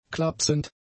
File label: "klapsend", German; adjectives